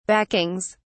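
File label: "backings", British English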